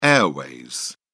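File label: "airways", British English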